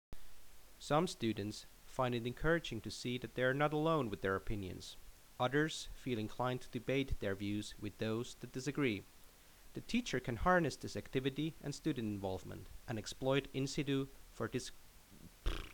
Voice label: Narration